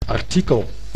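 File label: "artikel", Dutch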